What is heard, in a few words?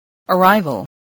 "arrival", English